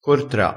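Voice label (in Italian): "cortra", dialettale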